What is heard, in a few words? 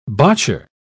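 "botcher", British English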